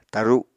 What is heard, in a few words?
"taru", apatani